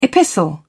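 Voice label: "epistle", British English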